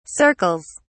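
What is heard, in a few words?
"circles", British English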